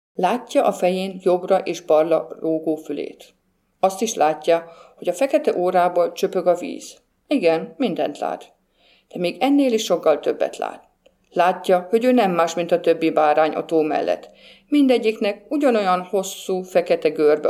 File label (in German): ungarisch